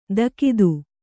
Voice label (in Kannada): "ತಾಕೀದು", Kannada